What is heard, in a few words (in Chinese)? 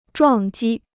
"撞击", Chinese